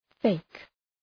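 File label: "fake", English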